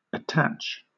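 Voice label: "attach", British English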